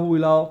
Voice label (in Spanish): jubilado, cu ha; Kuba; Havanna